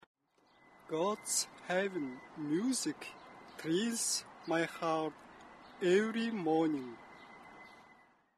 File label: poem